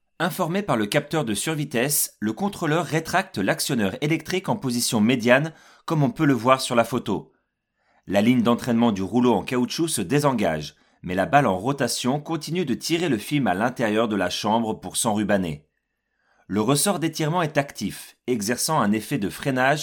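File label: French, male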